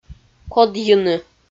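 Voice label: "кодйыны", Komi, female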